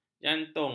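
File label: "jantung", Malay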